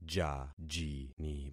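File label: "jajini", concatenated syllables; Affixing experiment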